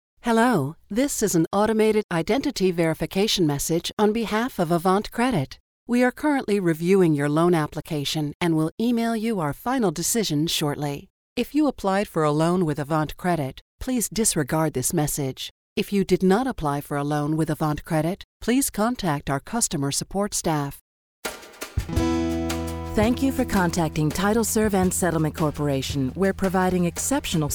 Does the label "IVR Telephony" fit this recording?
Yes